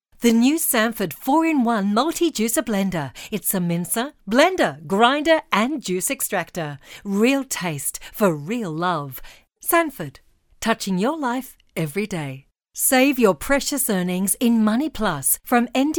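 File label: English Australian, female, voice over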